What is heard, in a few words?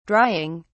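"drying", British English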